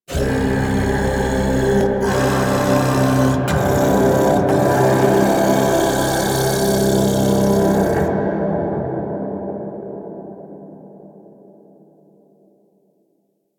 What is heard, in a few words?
Shouts; Entrati; Warframe; Man In The Wall